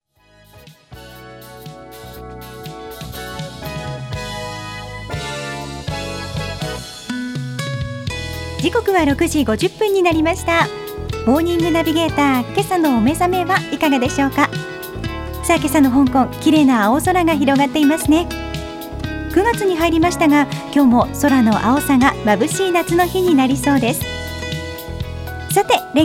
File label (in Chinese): 日語女聲